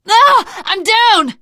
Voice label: incapacitated initial, survivor voice teen girl

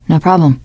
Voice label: you are welcome, teen girl; voice; source engine; left 4 dead 2; survivor

